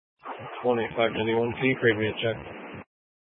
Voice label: Sheriff Dispatch; Mesa County